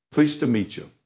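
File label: Voice Acting, Spanish, male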